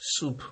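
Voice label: "soup", Nigerian Pidgin, male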